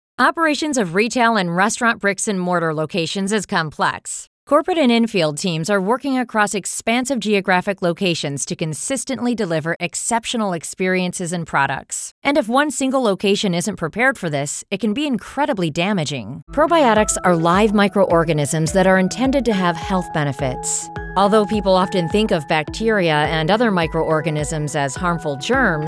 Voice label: English

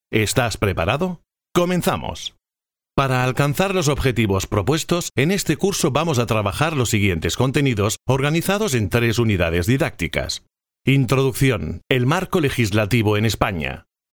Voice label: spanish

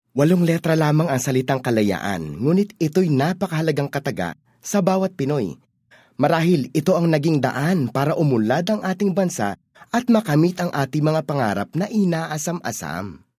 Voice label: Tagalog (Selling)

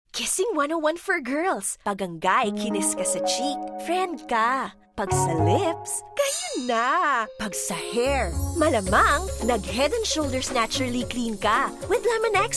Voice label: Taglish (Charming, Enthusiastic)

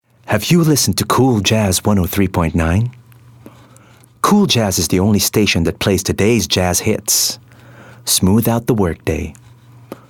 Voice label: English (Smooth, Elegant)

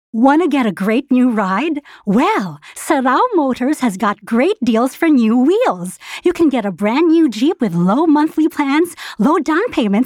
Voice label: English (Casual, Fun)

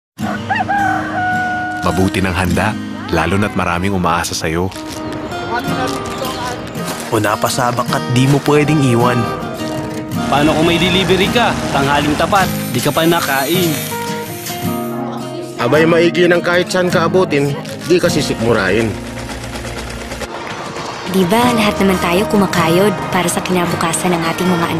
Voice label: Tagalog (Tender)

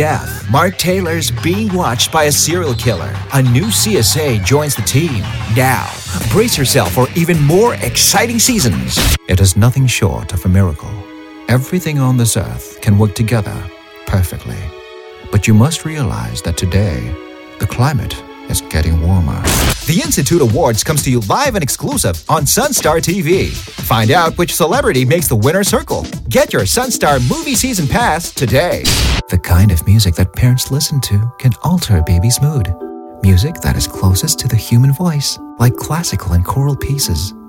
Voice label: English (Announcer; Announcer Reel

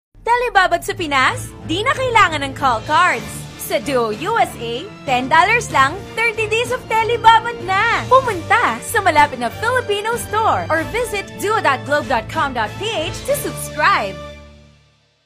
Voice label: Taglish (Energy, Selling)

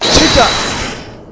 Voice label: Take That (Male)

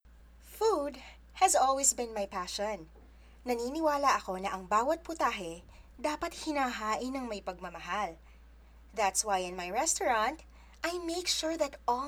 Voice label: Taglish, Casual, Professional

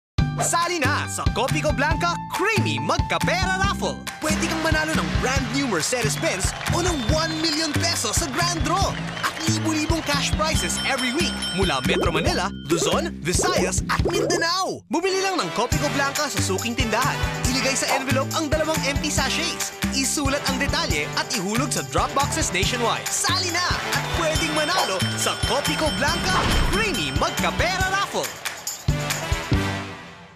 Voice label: Tagalog (Convincing, Inviting)

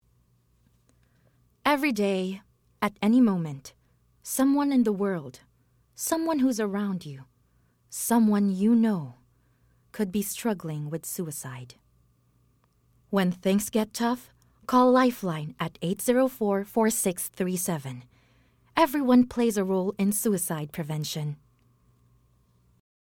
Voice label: English, Concerned, Hopeful

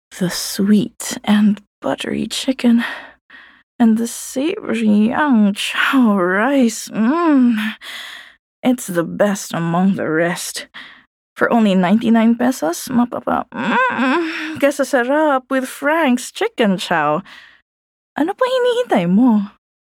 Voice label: Taglish (Sultry, Inviting, Seducing)

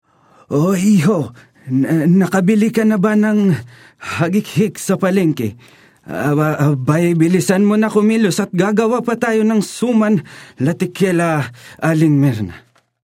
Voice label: Tagalog (Serious, Warbling, Creaking)

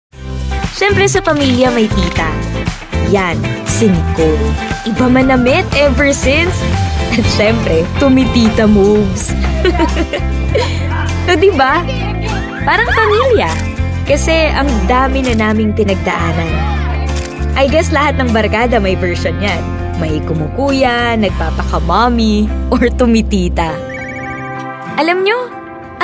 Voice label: Tagalog (Story Telling)

